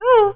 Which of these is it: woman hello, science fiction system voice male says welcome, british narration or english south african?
woman hello